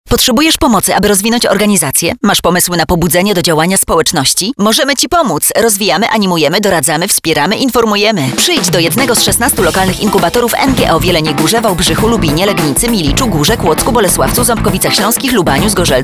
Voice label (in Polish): spot